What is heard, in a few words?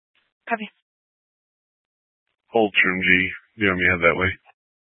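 Police Dispatch, Scanner audio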